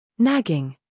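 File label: "scandal", American; nagging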